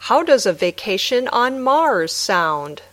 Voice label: Dictation